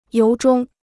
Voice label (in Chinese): "由衷", Chinese